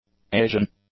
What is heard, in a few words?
"Asian", English; nouns